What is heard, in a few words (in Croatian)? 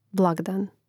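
"blagdan", Hrvatski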